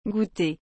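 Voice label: "gouter", French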